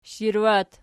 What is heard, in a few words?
"shirwat", Archi